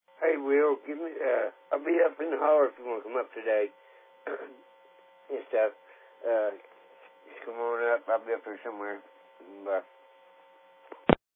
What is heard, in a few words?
Voicemail